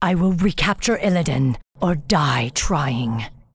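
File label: pissed, English; Maiev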